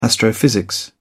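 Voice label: "astrophysics", British English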